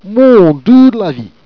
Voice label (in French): mouond oue d'la vie, Jèrriais